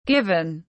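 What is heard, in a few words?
"Given", English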